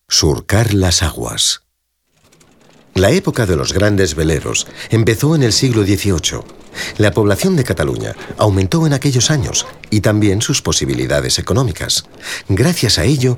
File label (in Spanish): audioguia esp